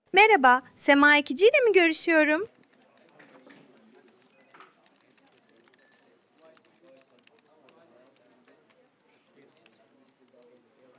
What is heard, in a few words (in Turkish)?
Yapay Zeka Arama, Görüşme Kaydı